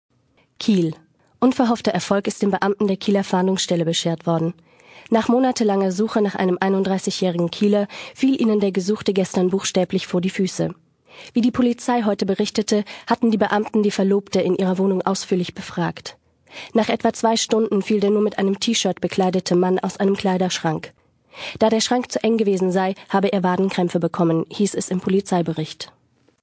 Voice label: sprechprobe nachrichten

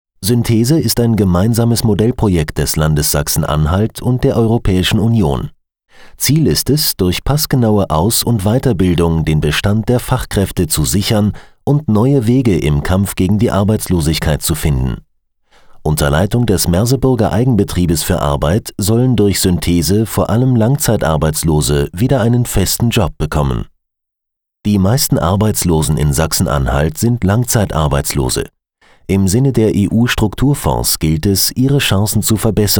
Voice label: imagefilm neutral; Muttersprache Deutsch; Sprecher in Berlin

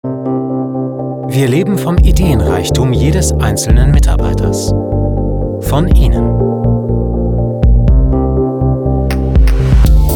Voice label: imagefilm deutsch

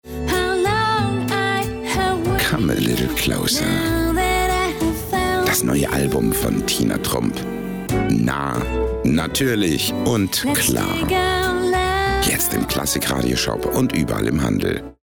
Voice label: funkspot

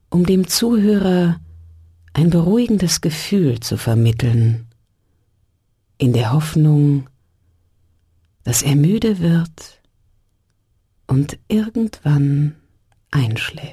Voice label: intro werbung

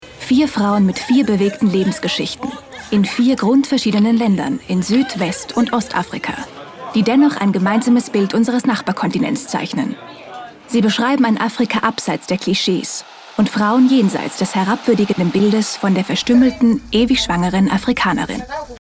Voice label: Off Doku

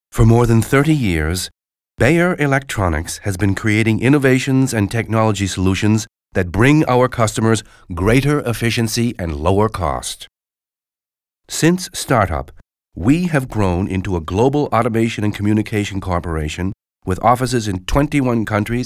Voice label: werbung; beijer

